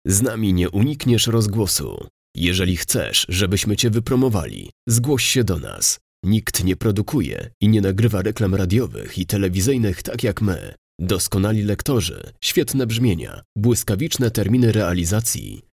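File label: Polish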